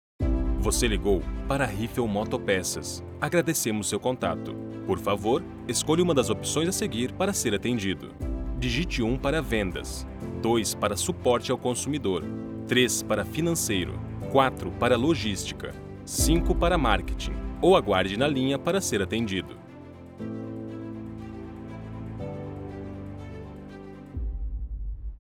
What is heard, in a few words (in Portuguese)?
Espera Telefonica; Riiffel